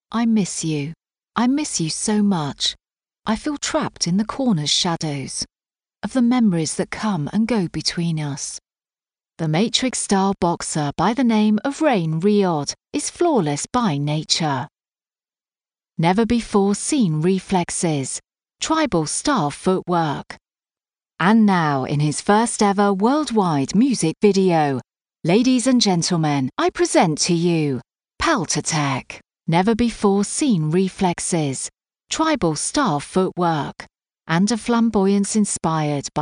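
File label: English female; lektoring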